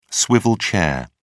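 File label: "swivel chair", British English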